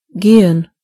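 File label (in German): "walk", German; gcompris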